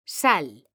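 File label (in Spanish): "SAL", Spanish; mekanta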